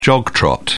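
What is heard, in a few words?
"jog trot", British English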